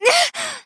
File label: pain death